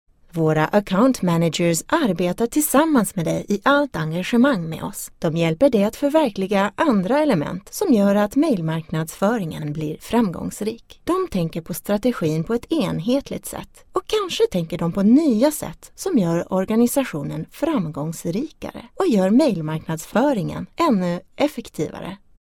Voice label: locutora sueca swedish voiceover